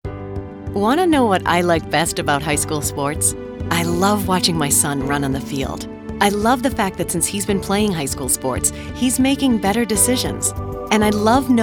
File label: audio spot